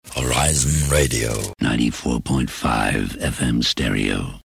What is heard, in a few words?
Radio 94.5 FM Stereo; Horizon; Horizon Radio Jingles 1981 to 1985